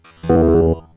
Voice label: Vocoder Four